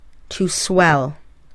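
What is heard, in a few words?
"to swell", English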